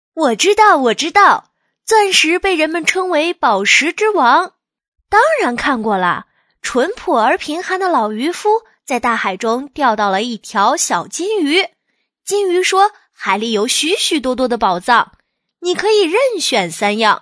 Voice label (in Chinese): 女声【童声】女童